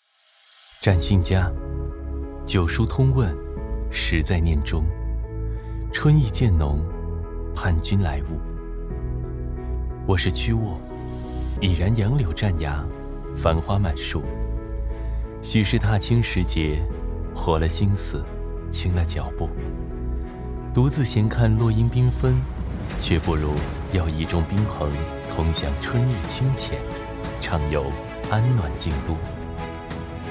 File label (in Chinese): 男 走心 文化走心宣传; 展信佳